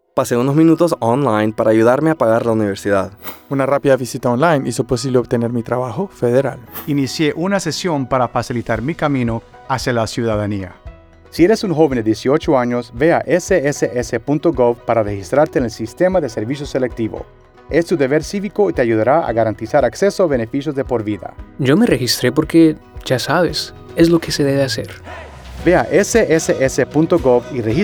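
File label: Testimonial Radio, Spanish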